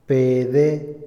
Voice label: "narrar", Otomí, hombre; Habla; INALI

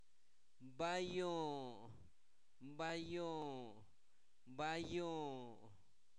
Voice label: Zapoteco, santo domingo albarradas; INALI; numeros

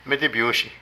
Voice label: Cambio, monedas, Zapoteco de Petapa; Vocabulary; Políticas

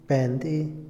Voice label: "atrapar", Otomí, hombre; INALI

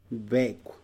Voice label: "perro", Zapoteco

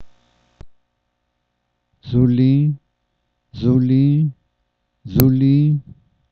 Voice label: está parado, Zapoteco San Pablo Villa de Mitla; verbos; Vocabulary; sta maria albarradas

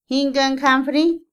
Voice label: "sorprendido", Otomí, mujer; Emociones; Vocabulary; INALI

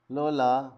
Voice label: "Oaxaca", Zapoteco Magdalena Ocotlan; Topónimos; INALI; Haspelmath

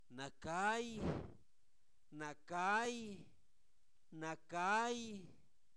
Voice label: Zapoteco Albarradas, santo domingo albarradas; oscuridad; fenomenos naturales; INALI

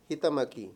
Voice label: "con", Mayo; Palabras con distintas funciones

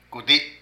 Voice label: Teñir, Zapoteco de Petapa; Ropa; Vocabulary

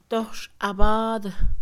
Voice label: cocinado, Mixe, Mujer; Comida; INALI

